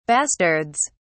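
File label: "bastards", British English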